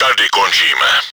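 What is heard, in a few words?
crew deactivated, чешской озвучки; Tady končíme.; Czech; Все, приехали; Смерть экипажа; World of Tanks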